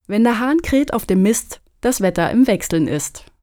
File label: german folk saying weather change cock